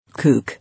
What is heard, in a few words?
"Cook", English